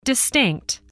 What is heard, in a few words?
"distinct", spelling